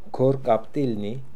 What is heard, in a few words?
"Korkaptilnian", pronounciations; Fourth Way; Beelzebub pronounciations